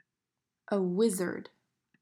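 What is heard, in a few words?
"Wizard", English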